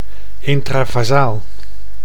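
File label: "intravasaal", Dutch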